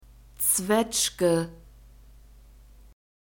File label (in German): "zwetschge", German; fruits